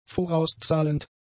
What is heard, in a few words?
"vorauszahlend", German; adjectives